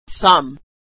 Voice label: "thumb", pronunciation